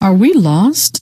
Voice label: are we lost, scientist female